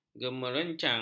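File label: "gemerencang", Malay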